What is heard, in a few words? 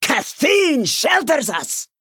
Female Dwarf Play